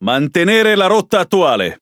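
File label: Speech Pilots Torpedo Airborne, Italian; World Of Warships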